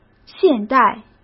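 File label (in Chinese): "现代", Mandarin Chinese; hsk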